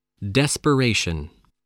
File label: "desperation", English